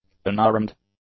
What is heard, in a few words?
"unarmed", English; adjectives